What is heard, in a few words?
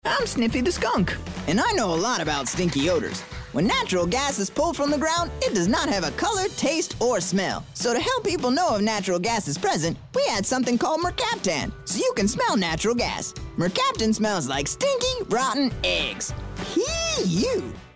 character